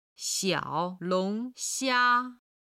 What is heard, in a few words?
"xiao3 long2 xia1", Chinese